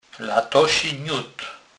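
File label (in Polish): "latosi", Kurpie dialect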